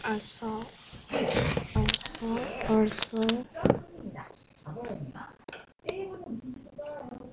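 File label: "also", spoken word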